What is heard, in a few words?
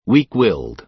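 "weak-willed", American English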